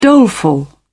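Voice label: "doleful", British English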